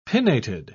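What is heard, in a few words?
"pinnated", British English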